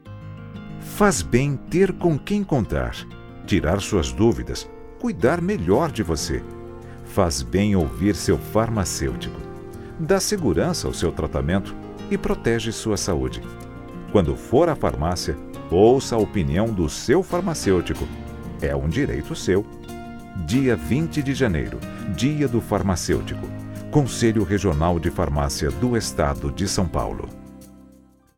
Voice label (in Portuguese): COMERCIAL RADIO